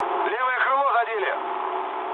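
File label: Russian left wing mood low, speech; War Thunder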